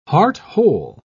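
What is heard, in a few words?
"heart-whole", British English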